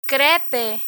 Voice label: "crepe", Spanish; travel menu; learn spanish

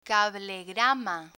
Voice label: "cablegrama", Spanish

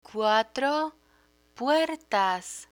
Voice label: cuatro puertas, Spanish; picture dictionary; learn spanish

